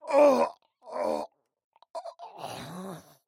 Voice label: male hate death